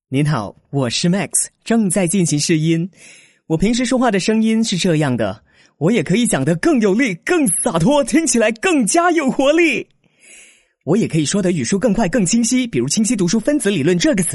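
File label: Chinese Mandarin, male, narration; voice over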